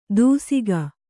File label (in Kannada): "ದೂಸಿಗ", kannada